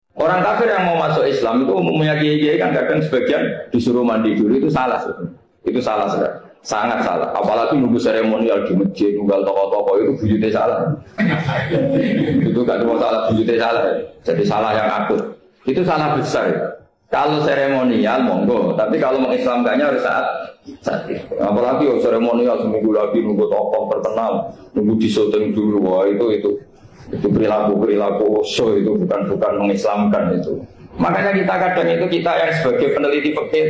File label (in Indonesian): ngaji santri